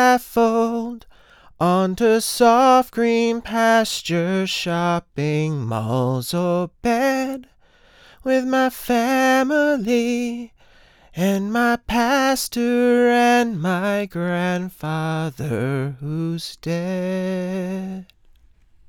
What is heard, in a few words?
character range